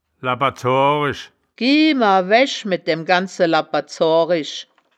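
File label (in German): "labper zoorisch", Wittlicher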